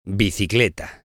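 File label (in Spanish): "bicicleta", Spanish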